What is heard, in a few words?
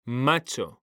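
"macho", Spanish, male; mekanta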